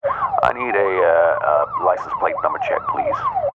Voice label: emergency police radio male officer in car sirens i need a licence plate check please